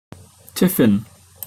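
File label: "tiffin", British English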